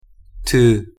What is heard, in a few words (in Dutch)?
"te", Quechua; thee-in-andere-talen